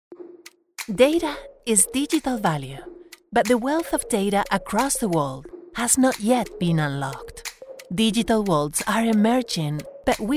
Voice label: English modern natural, English commercial voiceover